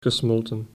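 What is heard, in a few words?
"gesmolten", Dutch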